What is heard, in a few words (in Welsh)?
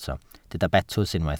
"betws", Welsh